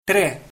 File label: "tre" albanian